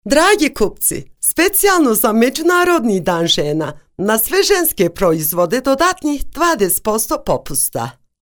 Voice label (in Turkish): BOŞNAKÇA